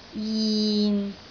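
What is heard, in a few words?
"jin6", Cantonese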